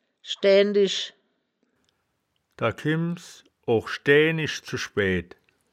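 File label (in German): "schdaeae nisch", wittlicher woerterbuch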